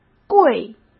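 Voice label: "跪", Mandarin Chinese; chinese-flashcards; hsk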